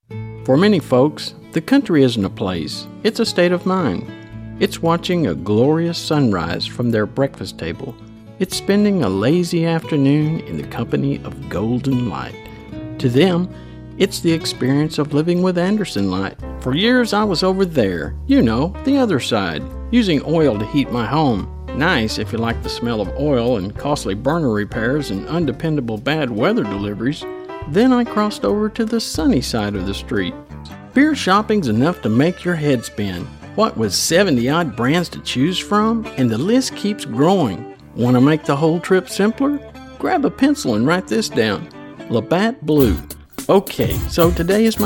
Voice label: commercial

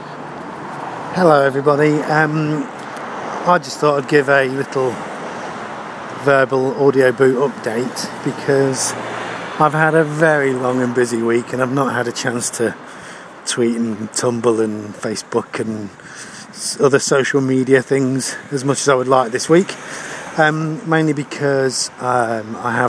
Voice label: professional ramble

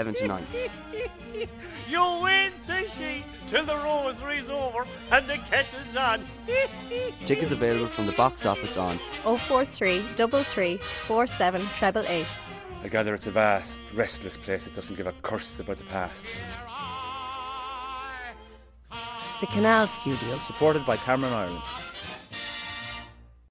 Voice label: philadelphia radio ad

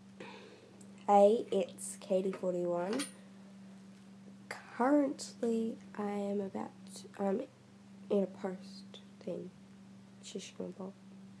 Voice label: my Australian accent

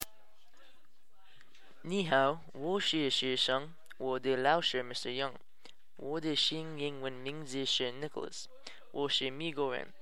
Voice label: chinese

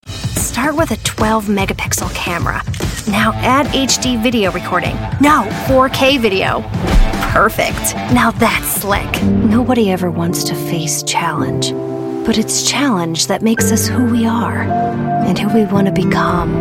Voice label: commercial voiceover

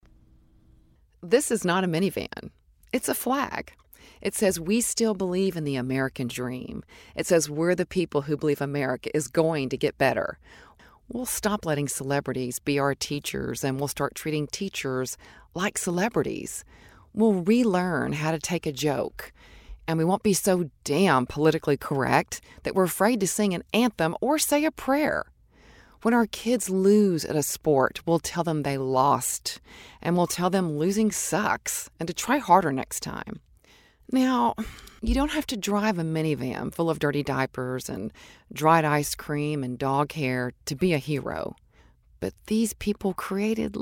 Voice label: voice over professional

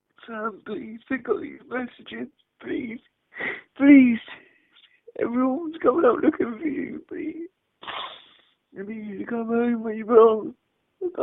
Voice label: phone message

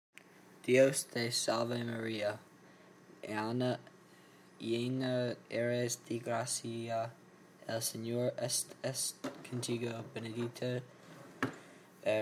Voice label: Spanish prayers

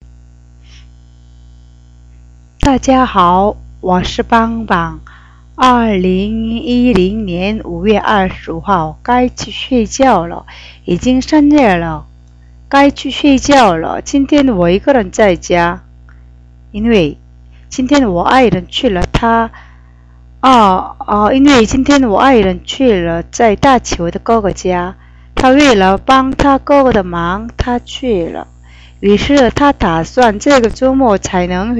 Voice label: chinese; 잠잘 시간이예요; 該去睡覚了

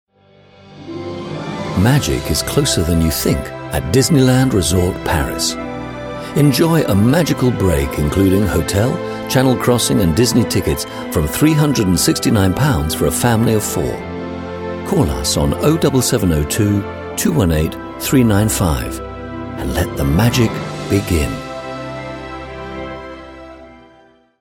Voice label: advert; disneyland

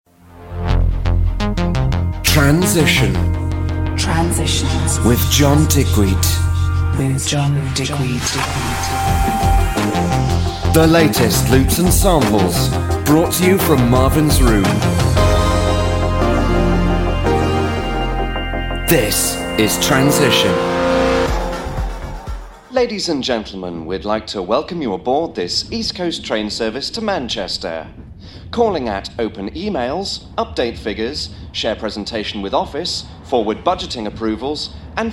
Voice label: voice over and audio production showcase

